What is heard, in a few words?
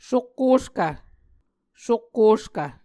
"sokkoskv", Muscogee; A Dictionary of Creek/Muskogee; SIL International